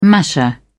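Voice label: "masher", British English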